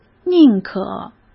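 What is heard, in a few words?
"宁可", Mandarin Chinese; hsk; chinese-flashcards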